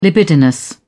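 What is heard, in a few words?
"libidinous", British English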